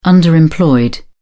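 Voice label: "underemployed", British English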